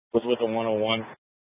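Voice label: police department Dispatch, scanner; Mesa PD; Fiesta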